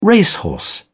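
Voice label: "racehorse", English, uk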